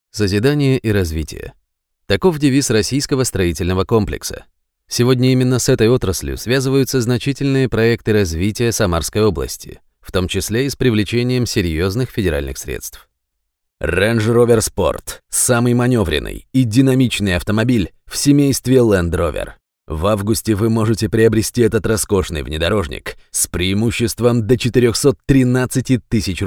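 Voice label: russian male narration; voice demos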